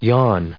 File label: "yawn", en us